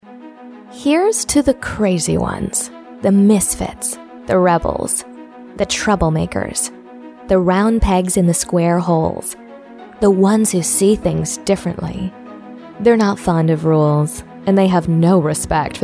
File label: English US